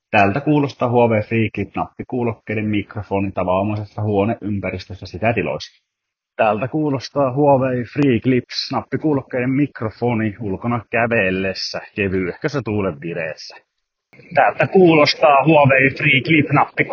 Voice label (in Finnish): mikrofonit, nappikuulokkeet; Huawei FreeClip